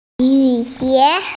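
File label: "yu xie" Chinese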